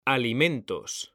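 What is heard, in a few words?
"alimentos", Spanish, male; mekanta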